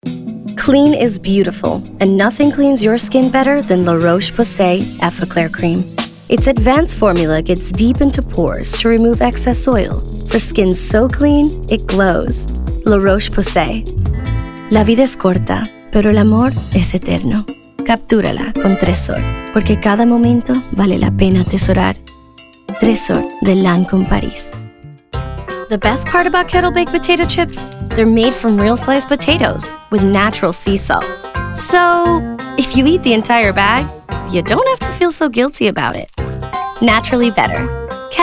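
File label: Commercial English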